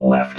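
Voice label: "left", robot voice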